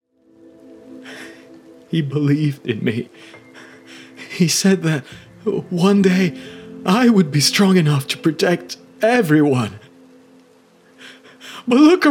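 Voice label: Character Natural, English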